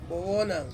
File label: "bowonang", Ansus; six